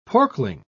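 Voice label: "porkling", British English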